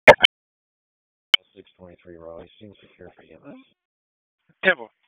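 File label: Police Southwest District Disp, radio; Wake; p25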